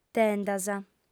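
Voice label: "tendas", lingua sarda, female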